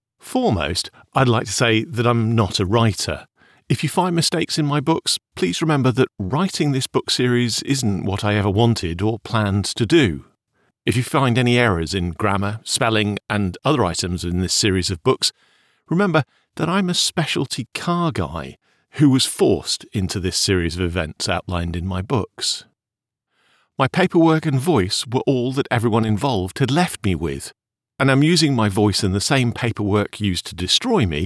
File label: english british audiobooks